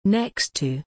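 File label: "next to", English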